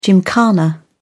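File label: "gymkhana", British English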